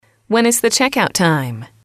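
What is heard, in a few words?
when is the checkout time, English, female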